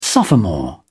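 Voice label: "sophomore", British English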